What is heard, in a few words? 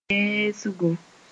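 "yɛɛsgo", Mossi; marvelling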